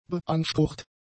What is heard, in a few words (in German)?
"beansprucht", German; adjectives